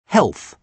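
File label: "health", British English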